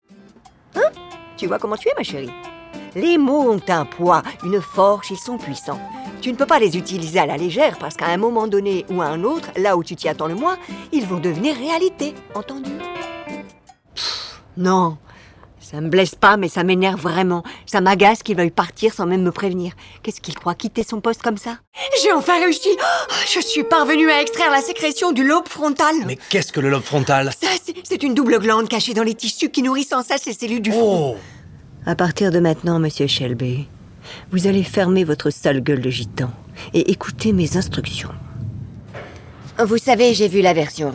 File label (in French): Medley Doublage; Mezzo-soprano avec une voix claire